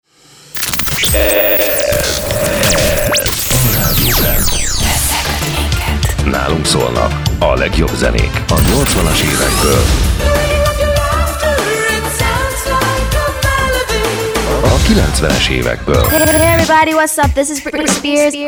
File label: ADVERT